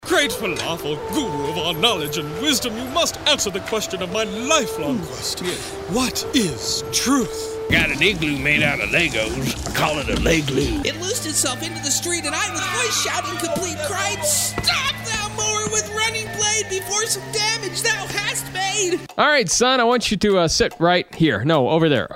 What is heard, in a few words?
Character